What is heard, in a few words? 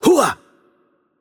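Hungarian attack heavy voice; bloom minigame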